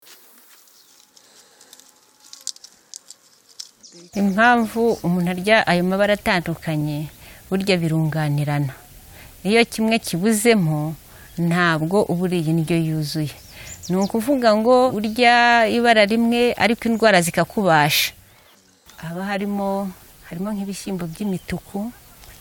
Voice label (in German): mit atmo